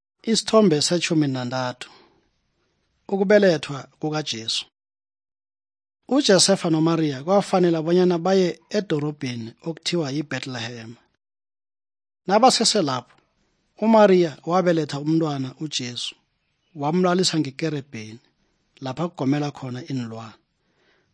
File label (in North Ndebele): good news ndebele southern, drama